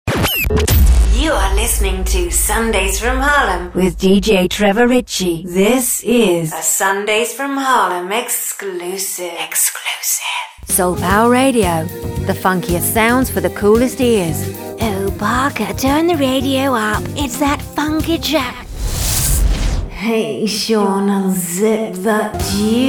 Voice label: Radio Imaging-DJ Drops